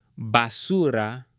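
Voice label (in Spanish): "basura", Spanish, male; UTENSILIOS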